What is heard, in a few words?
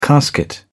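"casket", British English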